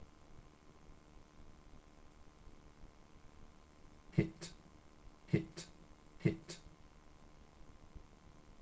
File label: "hit", verbs